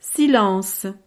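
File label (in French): "silence", French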